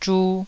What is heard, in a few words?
"zhu2", Taiwanese